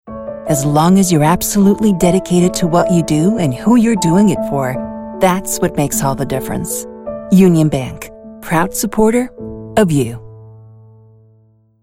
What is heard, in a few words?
Motivational, english narration voice over